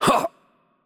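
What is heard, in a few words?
Spanish attack light voice; bloom minigame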